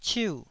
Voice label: "ciu4", Taiwanese